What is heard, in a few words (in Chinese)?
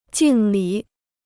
"敬礼", Chinese